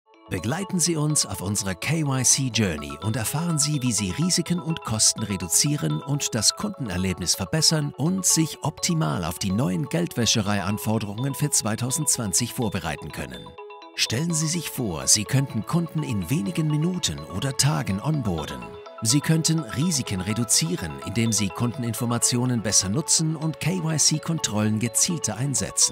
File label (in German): DEUTSCH engagiert